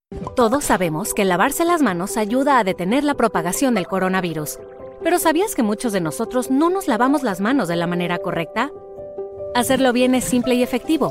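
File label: female, Latin American Spanish, narration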